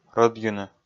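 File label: "рӧдйыны", Komi, male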